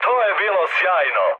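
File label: Serbian trick mood high; War Thunder; speech srb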